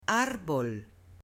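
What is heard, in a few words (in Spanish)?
"ARBOL", female; OBJETOS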